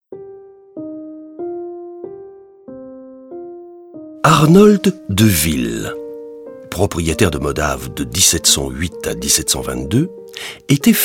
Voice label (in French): audioguide fr adulte; modave